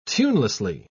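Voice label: "tunelessly", British English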